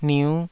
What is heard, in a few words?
"niu5", taiwanese